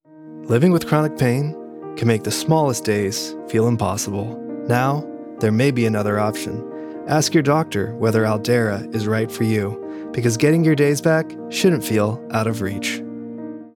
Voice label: commercial pharma, warm hopeful; healthcare dtc